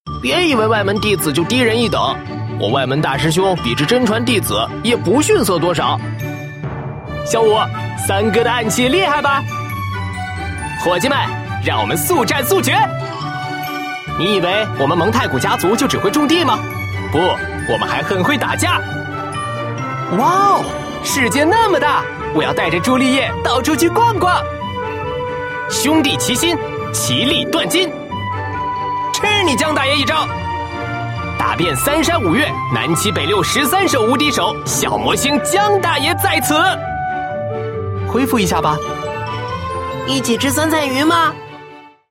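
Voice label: male teenage boy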